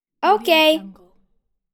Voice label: girl saying okay